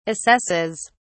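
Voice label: "assesses", British English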